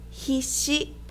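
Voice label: "hisshi", Japanese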